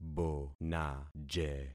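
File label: "bonaje", concatenated syllables; Affixing experiment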